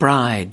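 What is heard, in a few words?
"bride", US English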